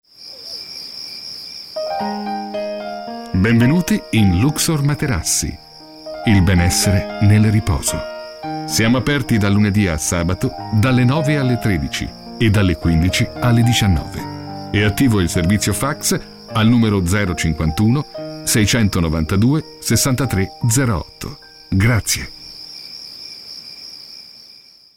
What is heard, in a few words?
phone